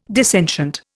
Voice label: "dissentient", Indian

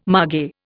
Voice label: "mage", Indian

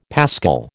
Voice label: "paschal", American

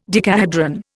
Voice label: "decahedron", Indian

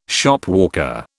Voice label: "Shopwalker", British

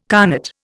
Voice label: "connate", Indian

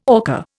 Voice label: "orca", Indian

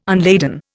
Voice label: "unladen", Indian

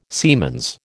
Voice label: "siemens", American

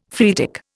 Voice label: "phreatic", Indian; Dictionary

